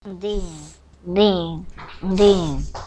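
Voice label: "ding", spoken word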